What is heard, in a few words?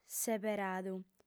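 "seberadu", female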